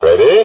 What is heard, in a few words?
"ready", british bloke